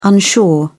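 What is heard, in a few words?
"unsure", British English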